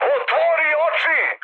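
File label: Serbian player friendly fire mood high; speech; War Thunder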